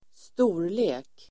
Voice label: "storlek", Swedish

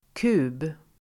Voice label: "kub", Swedish

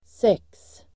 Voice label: "sex", Swedish

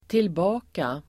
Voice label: "tillbaka", Swedish

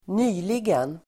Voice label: "nyligen", Swedish

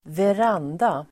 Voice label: "veranda", Swedish

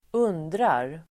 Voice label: "undrar", Swedish

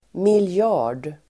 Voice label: "miljard", Swedish

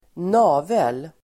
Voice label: "navel", Swedish

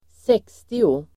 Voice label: "sextio", Swedish

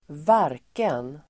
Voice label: "varken", Swedish

